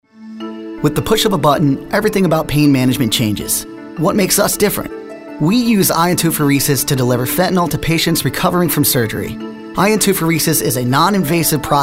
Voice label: Informative